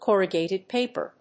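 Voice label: "corrugated paper", English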